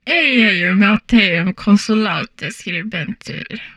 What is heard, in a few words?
humanoid portrait female; Stellaris